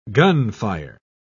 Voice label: "gunfire", pronounce